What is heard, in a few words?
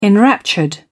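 "enraptured", British English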